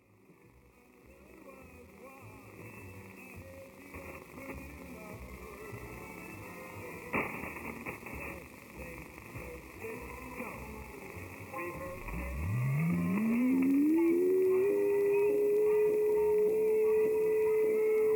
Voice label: 6374 kHz english; Harmony Radio; Great Britain; FT 2000; Schwarzsee